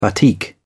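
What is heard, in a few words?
"batik", British English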